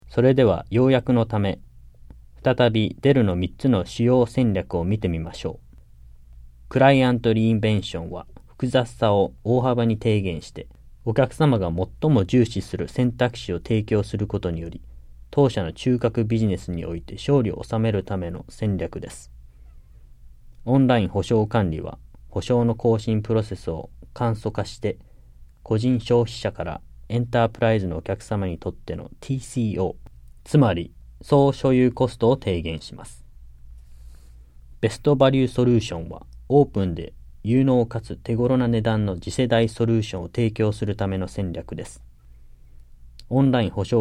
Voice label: JAPANESE; delltranslations